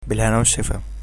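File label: bon appetit, Egyptian Arabic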